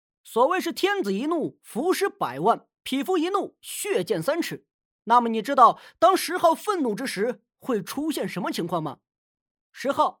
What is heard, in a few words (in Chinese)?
短视频 动漫 解说